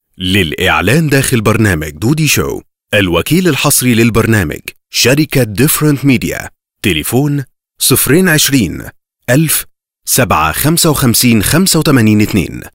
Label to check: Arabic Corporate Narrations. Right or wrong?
Right